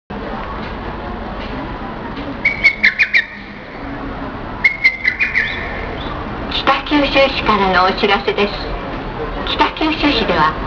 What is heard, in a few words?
kitakyushu city announcement